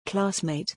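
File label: "classmate", English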